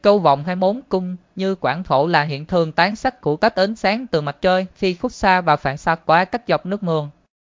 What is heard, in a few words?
speaker, vi VN; piper voices; vivos